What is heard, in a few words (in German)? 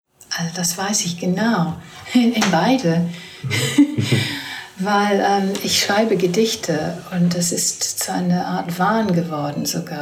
englisch deutsch